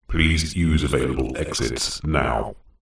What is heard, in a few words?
science fiction computer voice male says please use available exits now